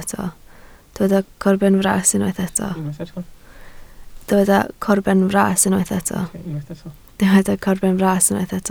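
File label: "corbenfras" Welsh, spoken word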